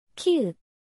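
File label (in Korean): "ㅋ", Korean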